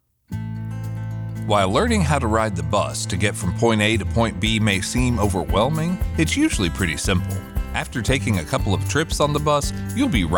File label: NARRATION